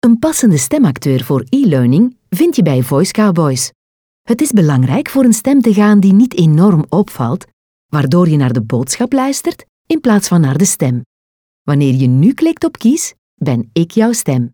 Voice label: ELEARNING

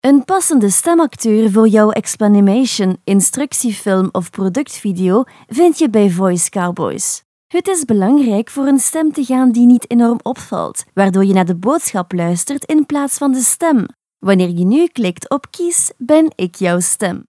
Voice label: ELEARNING

